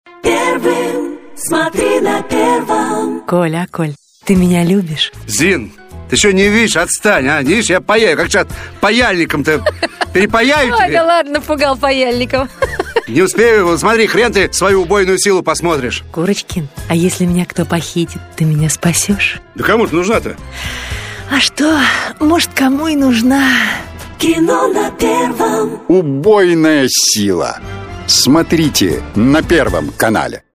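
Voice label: Russian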